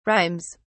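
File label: "rhymes", British English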